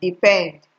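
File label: "depend", Nigerian Pidgin, female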